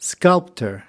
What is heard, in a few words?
"sculptor", us